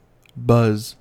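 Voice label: "buzz", American English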